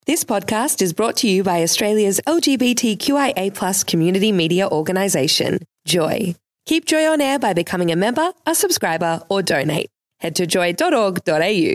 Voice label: Vox Pop; Melbourne